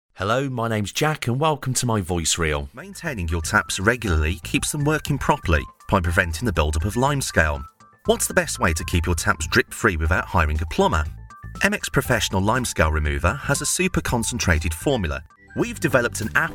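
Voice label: English male; lektoring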